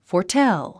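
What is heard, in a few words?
"foretell", English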